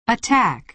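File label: "attack", American English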